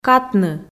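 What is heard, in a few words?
"катны", Komi, female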